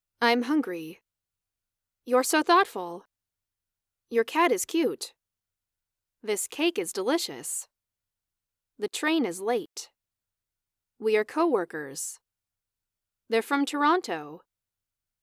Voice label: Sentences Semi Natural